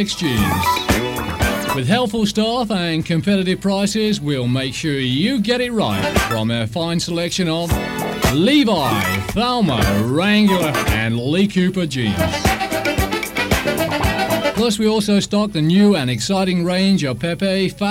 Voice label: Boutique Radio Advert; Goldmind; Horizon Radio Adverts 1981 to 1985